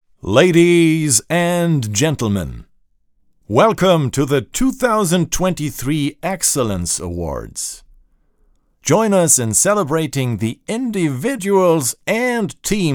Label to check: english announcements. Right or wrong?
Right